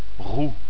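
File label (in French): "roux", French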